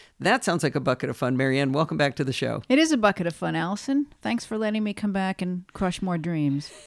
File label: zoom h4n pro sm58